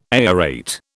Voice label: "aerate", British